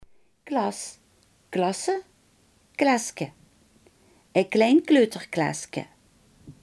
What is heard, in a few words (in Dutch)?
"klas", Limburgs